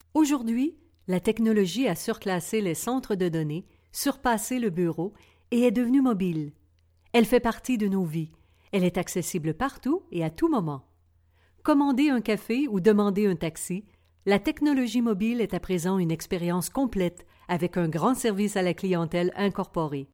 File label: eLearning/Training Female French (Canadian)